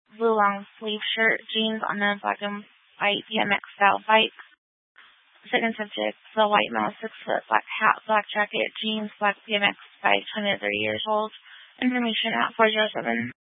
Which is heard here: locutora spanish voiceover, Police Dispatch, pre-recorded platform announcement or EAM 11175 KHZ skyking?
Police Dispatch